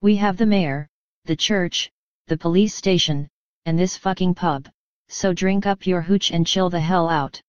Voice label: woman; DeadFright

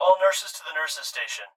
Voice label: Hospital Pa Voice; Blastwave

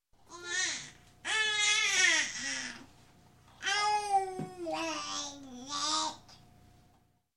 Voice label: Baby Talking, Human; Sound Ideas 2000 Series

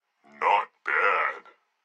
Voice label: phone good; Blind Drive

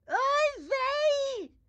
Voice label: grandma chopper annoyed; Blind Drive

